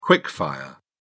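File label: "quick fire", British English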